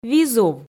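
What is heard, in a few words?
"визув", Komi, female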